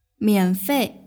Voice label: "mianfei", Mandarin; dianhuaka